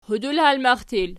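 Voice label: "hudulhalmaxtil" nominative, Archi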